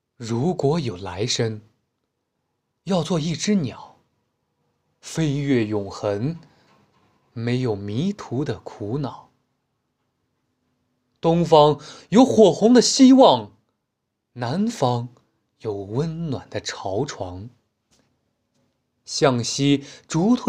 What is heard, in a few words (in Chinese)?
朗诵